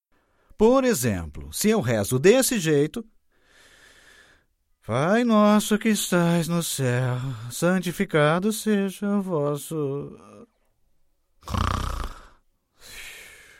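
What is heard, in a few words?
Brazilian Portuguese, male, narrative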